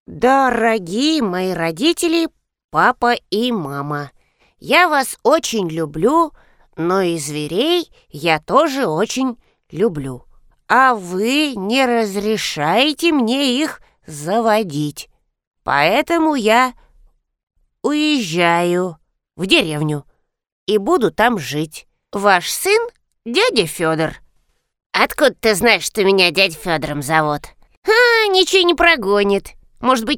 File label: Parodiya; Пародия на Дядю Фёдора из мультфильма «Простоквашино»